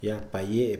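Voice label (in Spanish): "inculpar", Mixe, male; INALI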